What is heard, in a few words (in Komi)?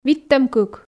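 "виттӧм кык", female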